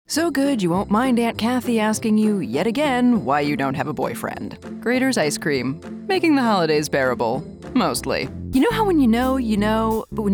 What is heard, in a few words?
nonbinary commercial; Conversational, grounded, sometimes sweet, sometimes sarcastic - always authentic. Versatile performer with a touch of rasp